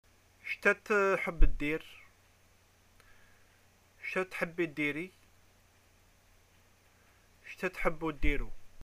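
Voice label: hobbies, arabic, Algerian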